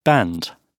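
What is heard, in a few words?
"band", British English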